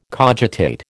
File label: "cogitate", American